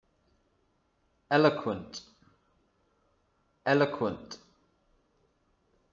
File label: "Eloquent", English